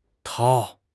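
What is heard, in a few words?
"掏", Mandarin Chinese; HSK6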